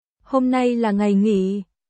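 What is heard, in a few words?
Vietnamese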